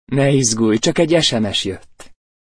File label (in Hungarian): Ne izgulj csak egy sms (férfi); csengőhang